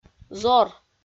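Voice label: "зор", Komi-Zyrian, female; audio dict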